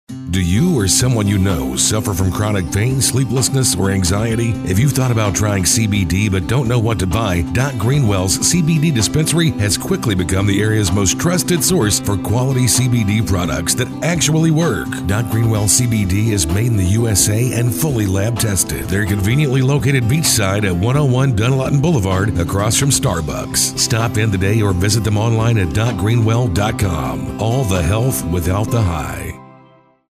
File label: Radio Commercial; DocGreenWell CBD